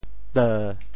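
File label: "teu7", Teochew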